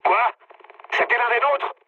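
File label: player killed ally, mood low, French; War Thunder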